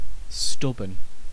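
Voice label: "stubborn", British English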